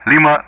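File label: Speech Sound Russian Common Player Alphabet; Lima; DCS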